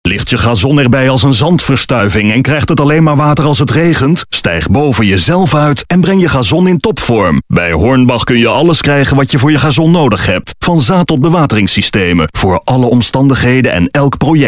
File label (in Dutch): hard sell stoer